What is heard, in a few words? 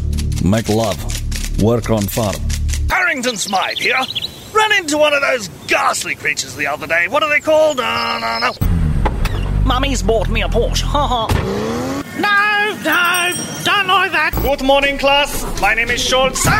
Voice Character